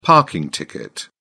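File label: "parking ticket", British English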